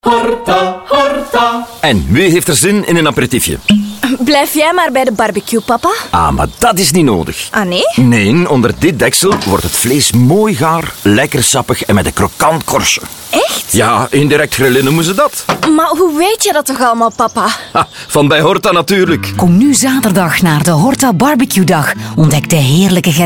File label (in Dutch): bbq dag commercial; horta lanaken; maastricht fm